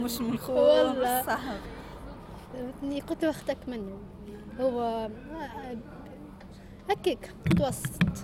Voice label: woman in cafe